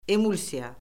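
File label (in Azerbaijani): "emulsiya", Azerbaijani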